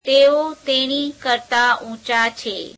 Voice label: gujarati, he is taller than her; [adjective + preposition]